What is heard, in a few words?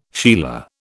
"sheila", British